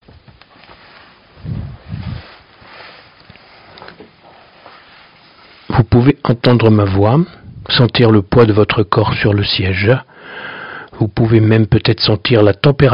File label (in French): neutre; ZOOM